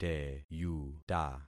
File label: "teyuta", concat syllables; Affixing experiment